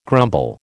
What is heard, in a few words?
"grumble", American